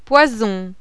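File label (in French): "POISON", French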